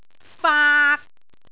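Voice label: "faak3", Cantonese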